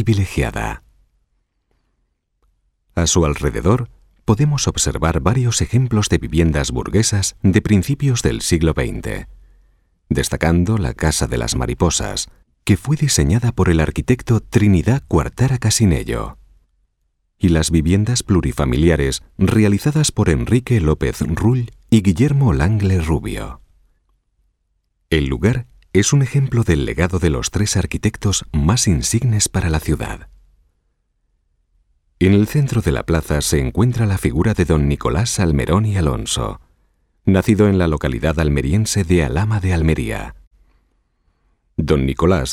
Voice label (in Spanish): audioguia puerta purchena